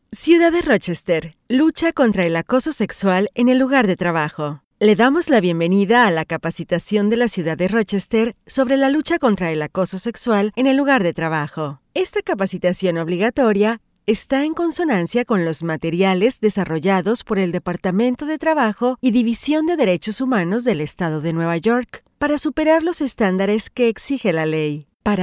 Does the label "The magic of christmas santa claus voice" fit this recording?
No